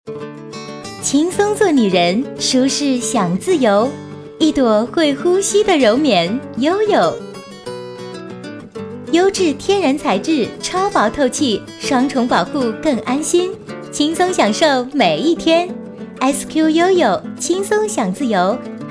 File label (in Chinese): 女 广告, 国语女声配音; SQ YOYO卫生巾